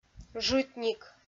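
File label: "жытник", Komi, female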